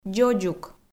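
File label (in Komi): "дзодзук", Komi, female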